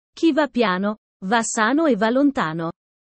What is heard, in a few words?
italian sayings about life